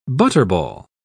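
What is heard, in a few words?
"butterball", English, British English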